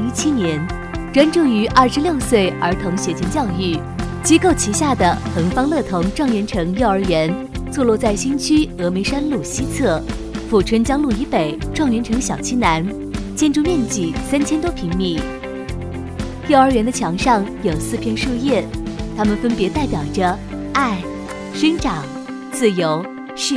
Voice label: 女,宣传片,教育,积极; 恒方乐童

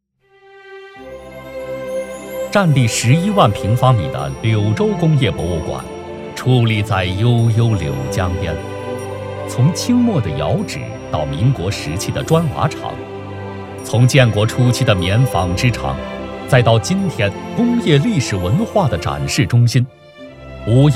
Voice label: 男 韵味 古典 大气舒缓 娓娓道来博物馆介绍; 柳州工业博物馆

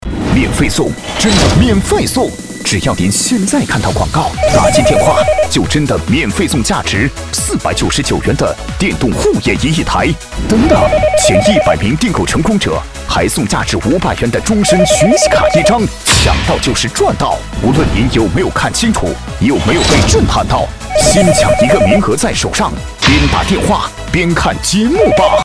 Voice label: 男 电购

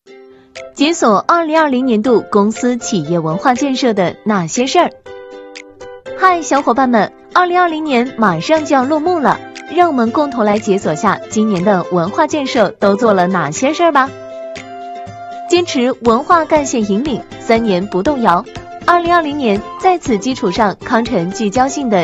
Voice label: 女 MG 介绍

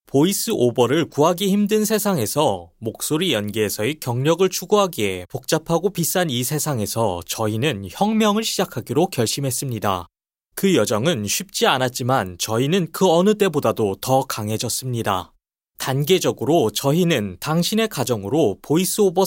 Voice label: 韩语男 干音

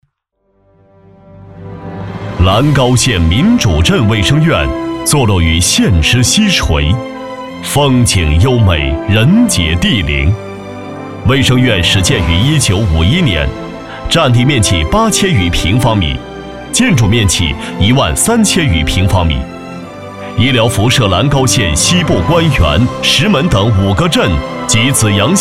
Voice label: 男 宣传片 大医精诚 民主博爱

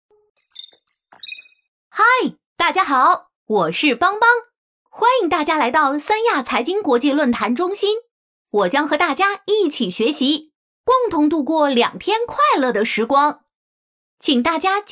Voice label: 女 童声 男童; 3D邦邦

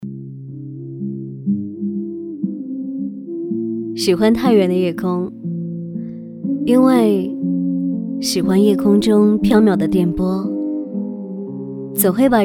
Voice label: 女 电台 广播40年

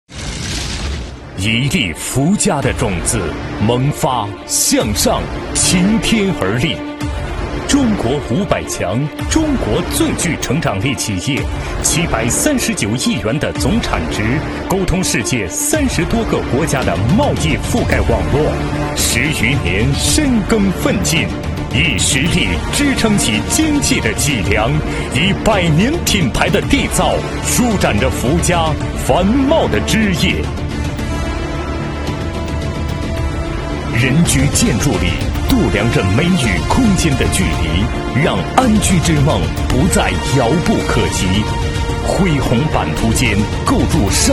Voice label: 男 企业宣传 大气; 福佳集团

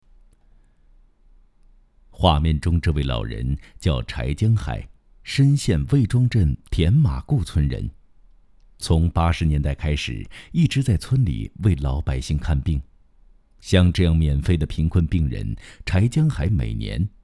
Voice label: 男 人物讲述类

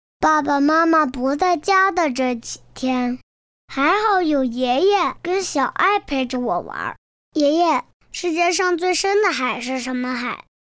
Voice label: 女 真童6岁 广告

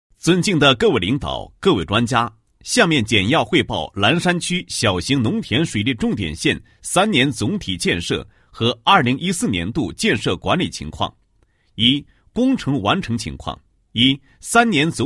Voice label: 男 工作汇报; 年度建设管理情况汇报

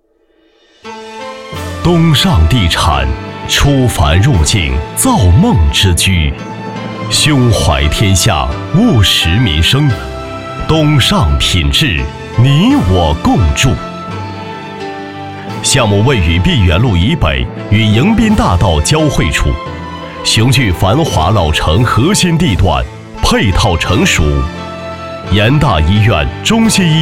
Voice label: 男 地产 配音

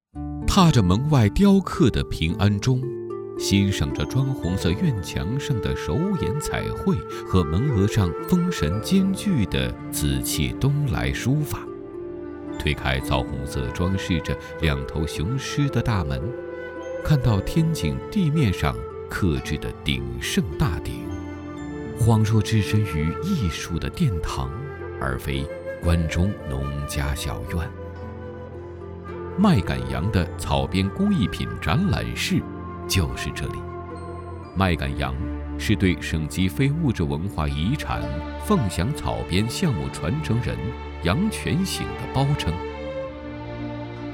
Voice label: 男 纪录片

